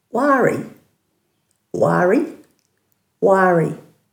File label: "waari", Dhurga